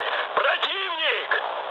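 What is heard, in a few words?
Russian enemy detected mood high, speech; War Thunder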